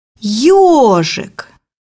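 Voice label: "hedgehog", Russian; bukvar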